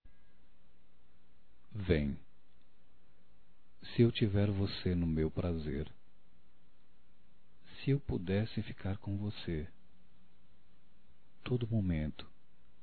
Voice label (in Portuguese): Vem Masculino, Telemensagens, Românticas